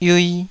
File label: "yiui2", taiwanese